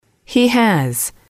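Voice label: "he has", English, female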